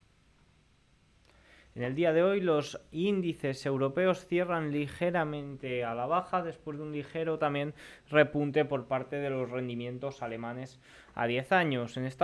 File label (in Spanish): radio; indices europeos a la baja y el spx a por los 5000 puntos